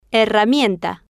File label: "herramienta", Spanish